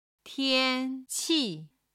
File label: "tian1qi4", chinese